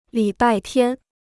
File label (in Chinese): "礼拜天", Chinese